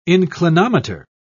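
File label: "inclinometer", British English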